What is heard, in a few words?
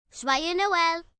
French Christmas, Occasions, 1 girl, 9 yrs., French; Holiday